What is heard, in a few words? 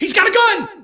"he's got a gun", pedestrian; cs2d; cityrp